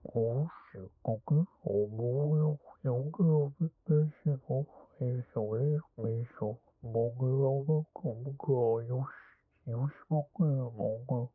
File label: Talk Loop muffled